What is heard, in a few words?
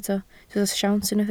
"siawns", Welsh